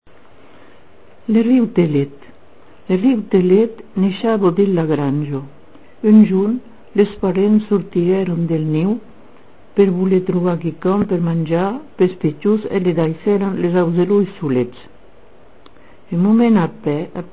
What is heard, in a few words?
occitan